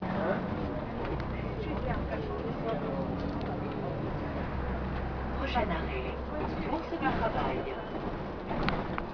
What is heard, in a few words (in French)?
annonce bus